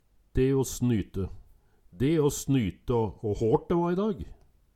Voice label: "de å snyte", Numedalsmål; Eksempel på bruk De å snyte å hårt de va i dag

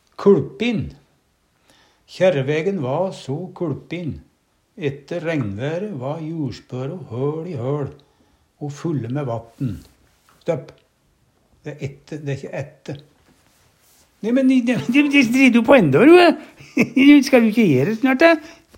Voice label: "kuLpin", Numedalsmål

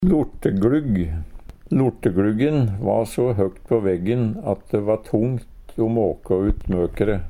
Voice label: lorteglugg, Numedalsmål

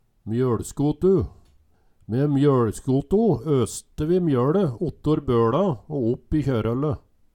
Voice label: mjoLskotu, Numedalsmål

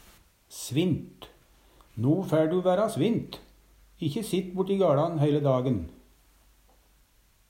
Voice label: svint, Numedalsmål; snøgg; Adverb

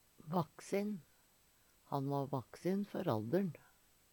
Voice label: "vaksin", Numedalsmål; Han va vaksin før alder`n.; Adjektiv

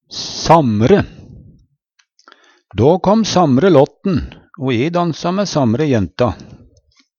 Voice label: samre, Numedalsmål; same, uendra, lik

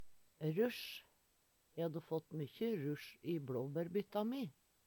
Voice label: russj, Numedalsmål; E hadde fått mæ me mykkje russj i bLåbærbytta mi.; Substantiv inkjekjønn; Planteriket

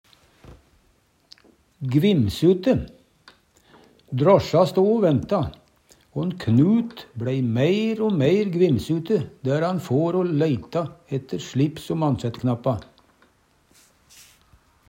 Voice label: gvimsute, Numedalsmål; Drosja sto o venta, on'Knut bLei meir o meir gvimsute der han for o leita

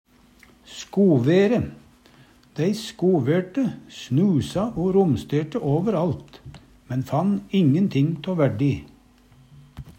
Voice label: "skovere", Numedalsmål

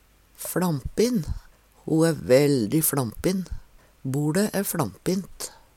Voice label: fLampin, Numedalsmål; kan brukast om både folk, dyr og ting

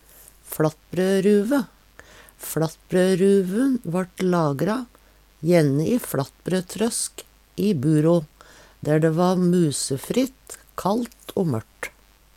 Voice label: "flatbroruve", Numedalsmål

